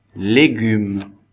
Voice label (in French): "légumes", français